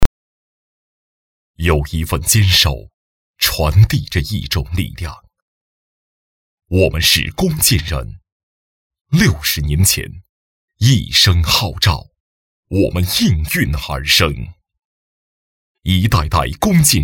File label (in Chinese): 低沉